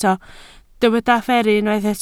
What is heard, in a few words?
"fferru", Welsh